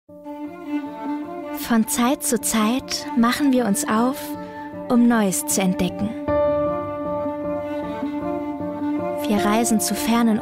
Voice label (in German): Kampagne Werbung; Emirates